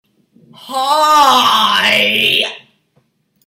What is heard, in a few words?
Weird Hi